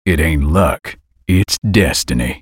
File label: ultimate effort, en US; Twisted Fate